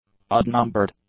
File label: "odd-numbered", english; adjectives